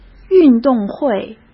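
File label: "运动会", Mandarin Chinese; chinese-flashcards; hsk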